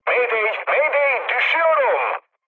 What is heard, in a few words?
aircraft destroyed, mood med, speech tur